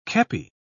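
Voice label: "kepi", British English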